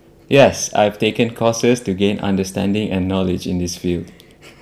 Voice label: interviewee male filler